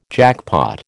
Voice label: "jackpot", American